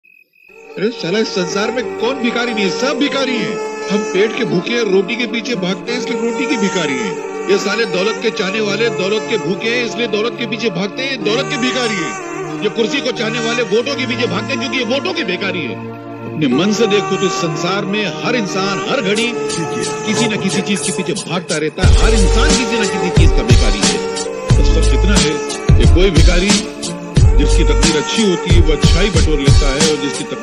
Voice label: emotional dialogue; kader khan

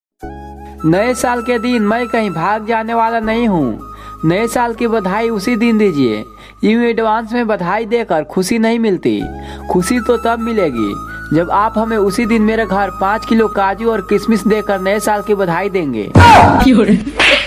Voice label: comedy ringtone; new year 2025; happy new year

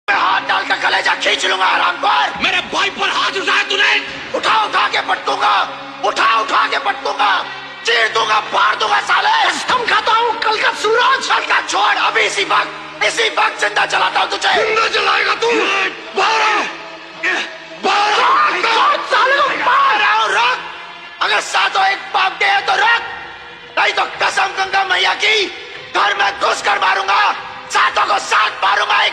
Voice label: dialogue ringtone; sunny deol dialogue

